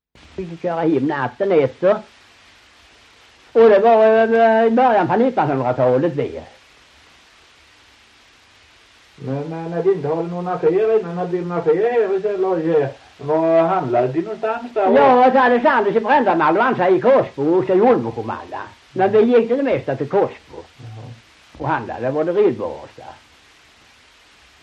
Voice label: Ramdala BLEK, dialekter; Folkminnen; Svenska sagor

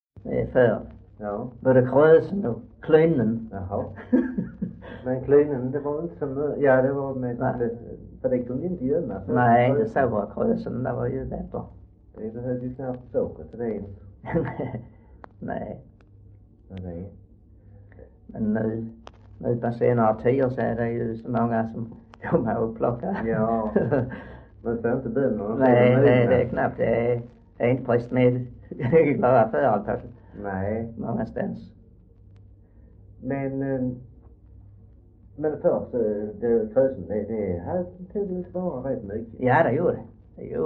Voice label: Röke SK, dialekter; Folkminnen; Svenska sagor

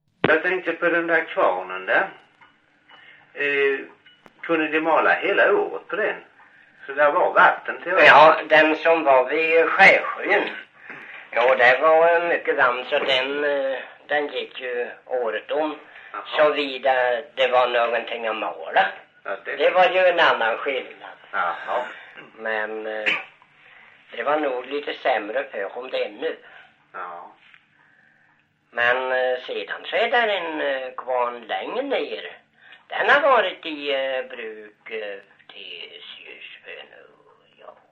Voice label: dialekter; Folkminnen; Svenska sagor

